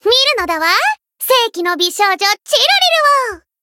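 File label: voice zodiac photo, Japanese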